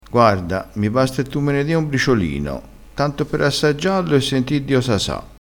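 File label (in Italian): "briciolino", vocabolario dialettale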